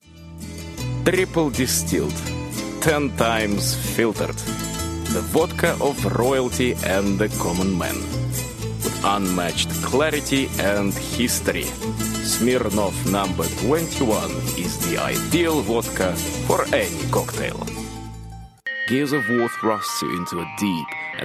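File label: Russian, English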